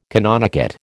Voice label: "canonicate", American